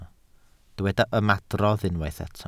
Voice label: "ymadrodd", Welsh